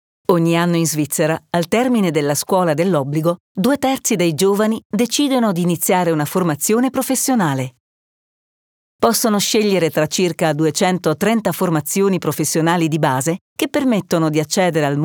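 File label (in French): Voix OFF Italian; BFI Berufsbildung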